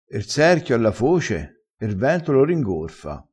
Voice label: "ringorfa", crespinese

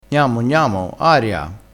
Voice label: "aria", crespinese

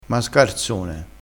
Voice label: "mascarzone", crespinese

